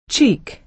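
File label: "cheek", American English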